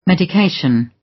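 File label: "medication", British; Time, history; Thời gian, lịch sử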